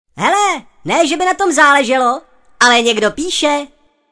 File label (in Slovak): sms hlásky cz